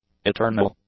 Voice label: "eternal", English; adjectives